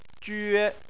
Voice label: "jue1", Chinese